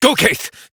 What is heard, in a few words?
Horse Sprint Adult; Duviri